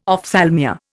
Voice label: "ophthalmia", Indian